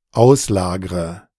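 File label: "auslagre", German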